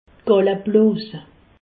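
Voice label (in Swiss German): "galleblos", Alsace, Haut Rhin; medecine; vésicule biliaire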